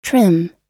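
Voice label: "trim", English; oxford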